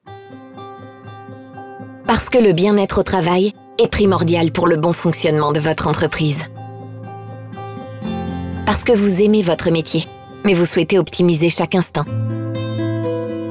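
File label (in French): Démo inspirationnelle, Comédienne, Voix off; ONLY (vêtements)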